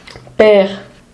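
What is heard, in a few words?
"pèr", Romansh sursilvan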